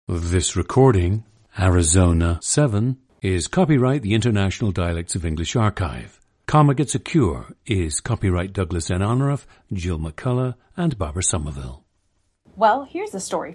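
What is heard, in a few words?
arizona, International Dialects of English; Arizona 7; a 29-year-old woman from Tucson, Arizona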